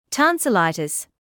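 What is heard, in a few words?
"tonsillitis", British English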